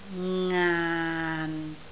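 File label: "ngaan6", Cantonese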